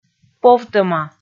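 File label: "повтӧма", Komi, female; kpv audio dict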